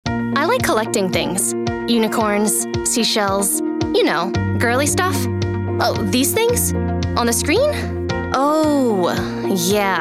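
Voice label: US, commercial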